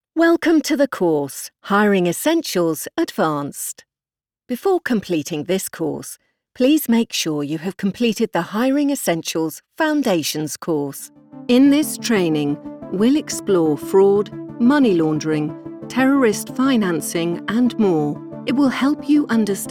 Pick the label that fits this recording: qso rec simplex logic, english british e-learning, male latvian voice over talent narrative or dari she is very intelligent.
english british e-learning